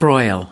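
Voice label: "broil", US English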